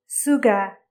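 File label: "parrot", Nepali; vertebrates invertebrates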